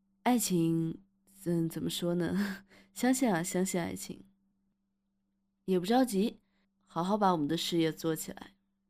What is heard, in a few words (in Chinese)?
明星模仿; 范冰冰